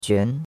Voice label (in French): "juan2", chinois; Cours-de-Chinois